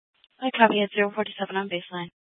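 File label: Mesa Police Department Superstition Dispatch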